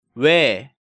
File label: "wae", Korean